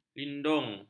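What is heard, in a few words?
"lindung", Malay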